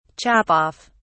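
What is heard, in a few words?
"chop off", British English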